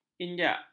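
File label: "injak", Malay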